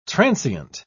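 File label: "transeunt", British English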